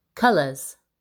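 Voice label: "colours", English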